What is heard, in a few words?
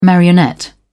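"marionette", English, British English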